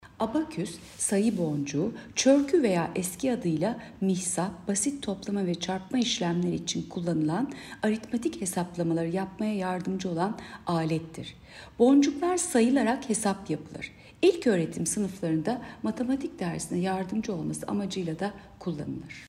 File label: turkce